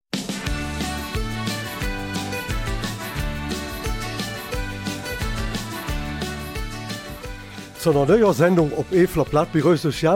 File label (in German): Eifeler Mundart